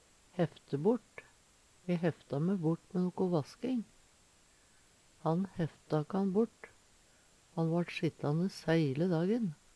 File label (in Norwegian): "hefte bort", Numedalsmål; E hefta me bort mæ nokko vasking. Han hefta kan bort. Han satt heile dagen.